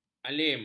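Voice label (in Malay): "malim", Malay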